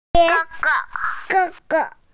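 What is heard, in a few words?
"da3", Mandarin Chinese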